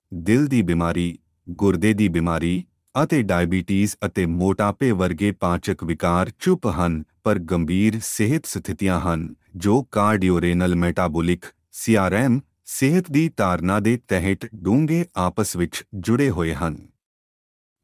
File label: Male Punjabi